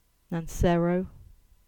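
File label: "Nancherrow", Cornish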